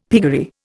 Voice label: "piggery", Indian; Dictionary

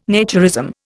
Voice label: "naturism", Indian

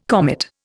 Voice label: "comet", Indian

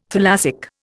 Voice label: "thalassic", Indian

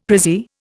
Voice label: "prezzie", Indian; Dictionary

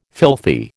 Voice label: "filthy", American

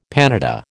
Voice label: "panada", American

